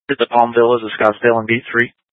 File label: Scottsdale Police, Simulcast